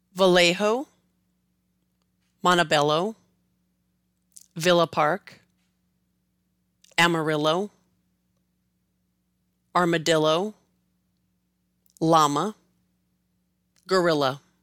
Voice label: Vallejo to Llama, Spanish words used in English

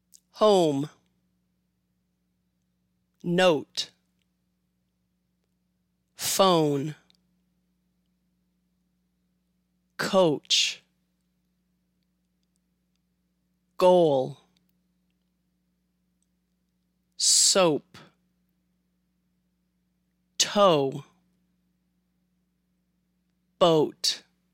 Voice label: Long O, American English; long and short vowels in American English

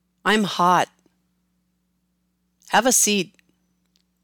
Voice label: Im Hot Have a seat, american english

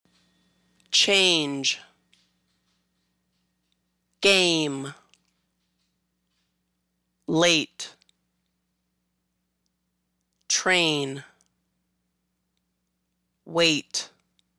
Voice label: Long A, American English; long and short vowels in American English

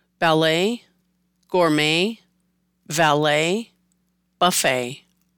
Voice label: Ballet to Buffet, american english

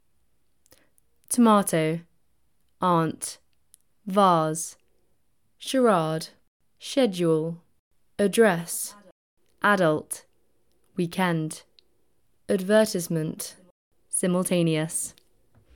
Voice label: Brit Tomato to Simultaneous, british english

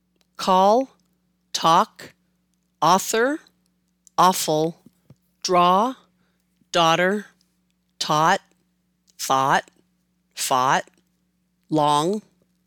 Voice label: Call to Dog, american english

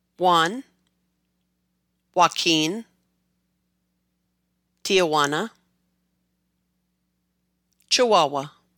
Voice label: Juan to Chihuahua, Spanish words used in English

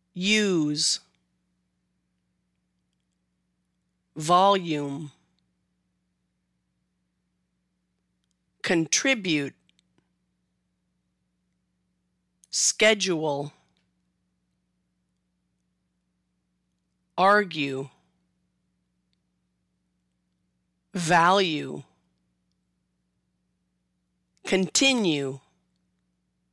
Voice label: Long U, American English; long and short vowels in American English

